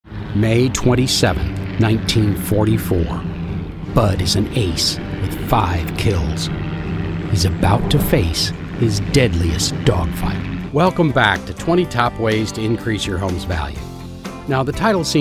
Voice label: Narration